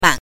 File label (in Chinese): "man", pinyin